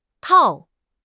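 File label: "tao4", Chinese; 汉语口语新时代-初级下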